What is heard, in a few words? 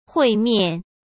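"会面" Mandarin Chinese; chinese-flashcards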